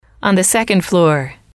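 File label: "on the second floor", English, female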